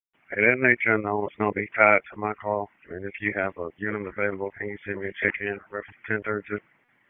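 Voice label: Police Southeast District Dispatch, p25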